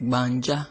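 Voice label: "gbanja", Nigerian Pidgin, male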